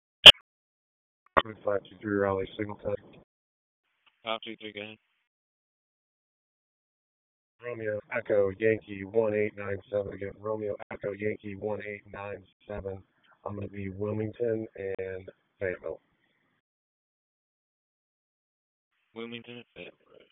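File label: Raleigh Police Southeast District Dispatch, p25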